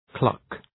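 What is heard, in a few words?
"cluck", English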